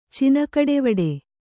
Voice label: "ಚಿನಕಡೆವಡೆ", kannada